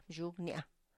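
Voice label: "bzhugnia", my Toe Nail, Tlacochahuaya Zapotec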